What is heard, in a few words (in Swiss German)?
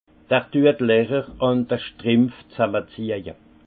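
"lecher", Alsace Haut Rhin; vin; rétrécit les trous des chaussettes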